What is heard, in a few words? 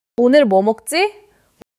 neutral, monologue